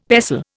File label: "pestle", Indian; Dictionary